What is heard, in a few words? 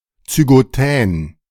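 "Zygotän", German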